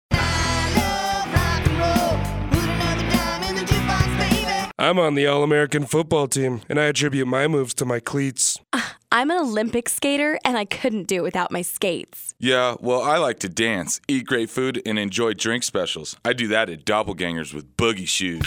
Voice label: Party Band radio spot; Sacramento

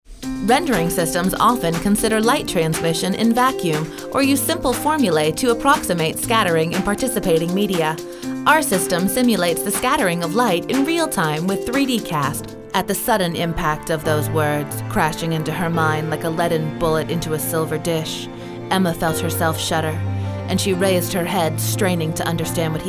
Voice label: Voice Over Artist Narration; Florida

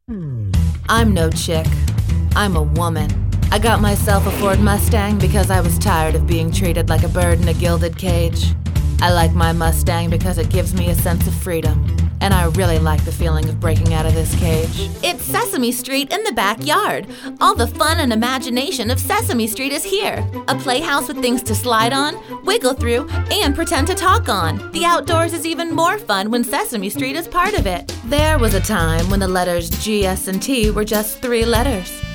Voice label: Voice Over Artist, Commercial; Florida

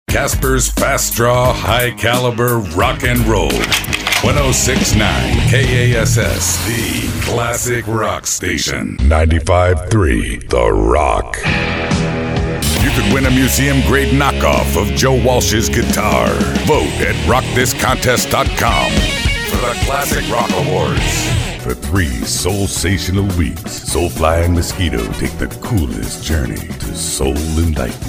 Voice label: Voice Over Artist, Radio; Connecticut

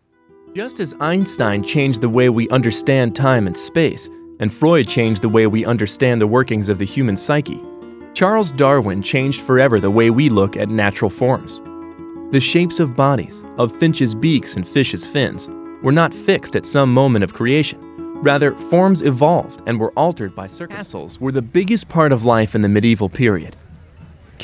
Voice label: Voice Over Artist; Washington DC

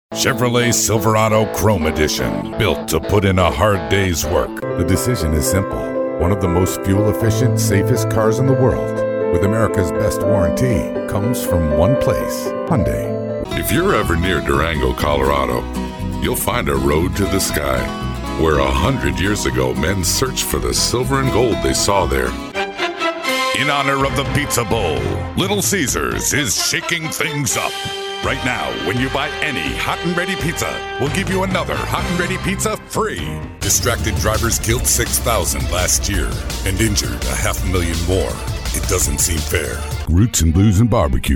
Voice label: Voice Over Artist, Commercial; Connecticut

